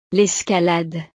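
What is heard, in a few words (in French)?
"l'escalade", French; deportes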